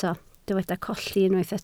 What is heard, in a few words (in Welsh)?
"colli", Welsh